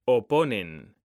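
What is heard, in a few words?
"oponen", Spanish, male; mekanta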